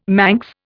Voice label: "Manx", Indian

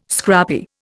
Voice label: "scrappy", Indian

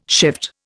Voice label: "shift", Indian

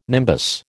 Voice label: "nimbus", American

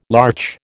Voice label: "larch", American

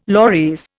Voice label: "loris", Indian

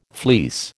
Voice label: "fleece", American

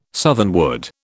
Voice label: "southernwood", British

